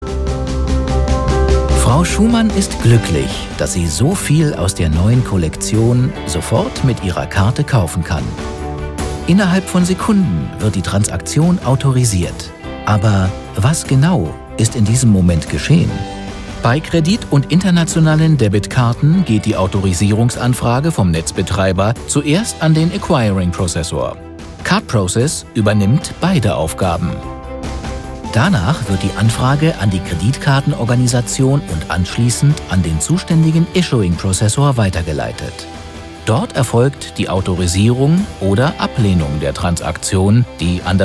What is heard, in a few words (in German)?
imagefilm; cardprocess